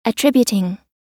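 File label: "attributing", US English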